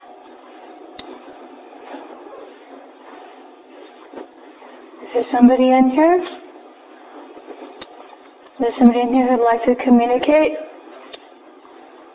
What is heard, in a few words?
man saying yes